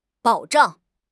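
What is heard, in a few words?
"保障", Chinese; HSK6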